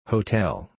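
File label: "hotel", English pronunciation; houtél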